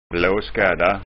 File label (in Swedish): "blaskadda", skånska